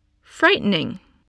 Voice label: "frightening", English voice, spelling